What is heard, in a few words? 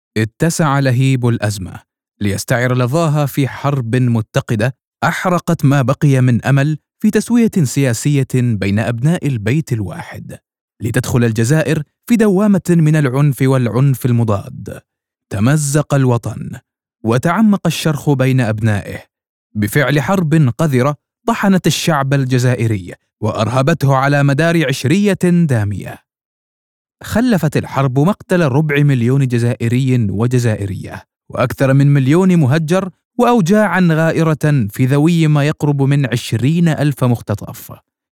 Documentary; Documentary 04 – Standard Arabic – Algeria